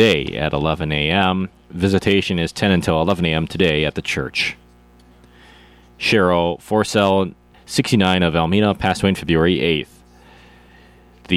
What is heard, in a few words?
Funeral Announcements